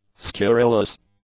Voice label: "scurillous", English; adjectives